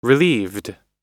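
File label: "relieved", US English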